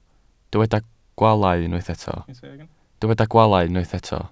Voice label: "gwaalau", welsh

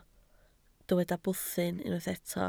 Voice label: "bwthyn", Welsh

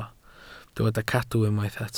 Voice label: "cadw", Welsh

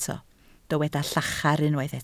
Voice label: "llachar", Welsh

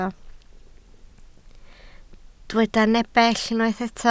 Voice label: "nepell", Welsh

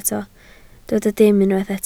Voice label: "dim", Welsh

